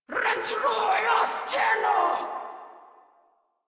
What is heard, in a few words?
Attack Grineer Female; Warframe